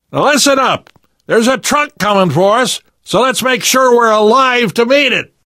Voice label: radio used truck, survivor voice